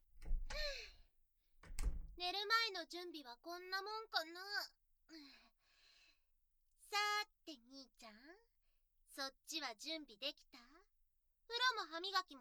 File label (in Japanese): 妹との添い寝; みみマゾ調教-妹の友人を襲った罰に耳だけでイケる耳舐め射精奴隷にされてしまう音声